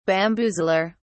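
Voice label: "bamboozler", British English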